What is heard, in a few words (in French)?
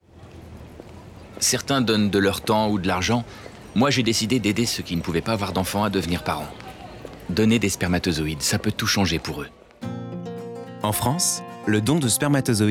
Radio Message Homme; BIOMEDECINE